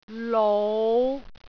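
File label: "lou5", Cantonese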